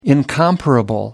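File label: "incomparable", British English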